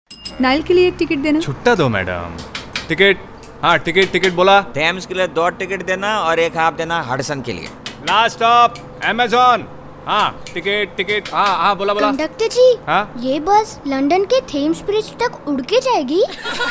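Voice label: Lifestyle english male voice over; Nirmal Lifestyle; Riverside Apartments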